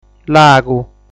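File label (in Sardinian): "Lagu", Sardinian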